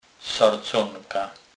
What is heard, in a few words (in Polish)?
"sarconka", kurpie